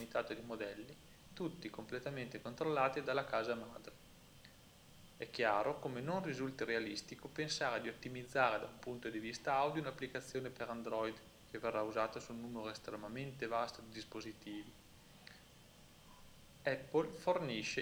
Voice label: Noise Test Talker Noise Subtracted; Noise+Speech